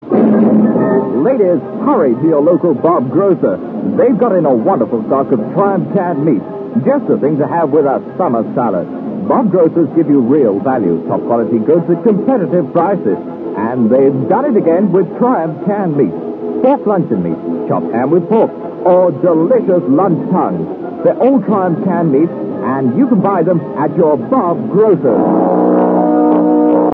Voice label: commercial - Grocers